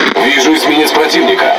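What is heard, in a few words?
Russian Speech Detection Enemy Destroyer; World Of Warships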